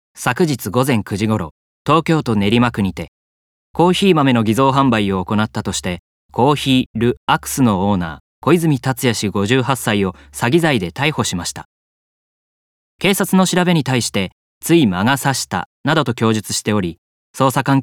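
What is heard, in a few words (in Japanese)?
ニュース, 大阪弁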